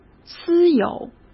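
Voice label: "私有", Mandarin Chinese; hsk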